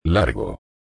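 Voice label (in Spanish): "largo", Spanish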